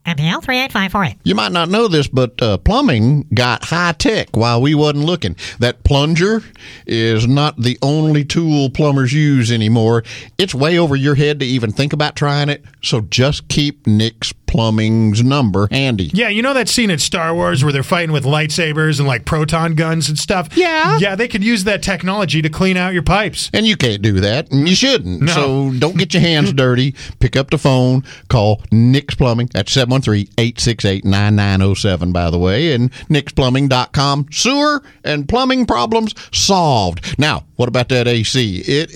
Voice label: RADIO AD